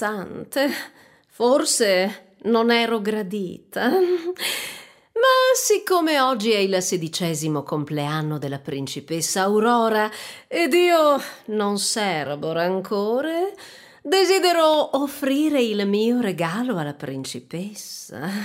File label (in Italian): Scena Malefica